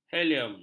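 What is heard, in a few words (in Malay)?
"helium", Malay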